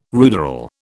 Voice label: "ruderal", British